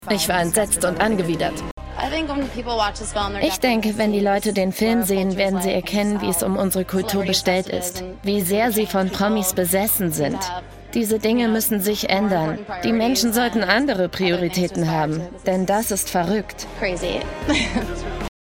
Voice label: Voice Over; BlingRing; LOFT VOICES